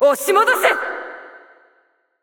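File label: Japanese, assist; ja JP; VO; Irelia; bloom minigame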